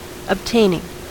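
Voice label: "obtaining", US English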